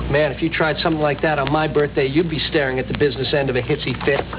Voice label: hissy fit